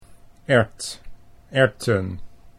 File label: "erwt erwten", Dutch